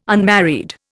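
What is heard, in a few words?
"unmarried", Indian English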